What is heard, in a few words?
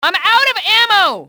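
out ammo, enemy, english, female; XBOX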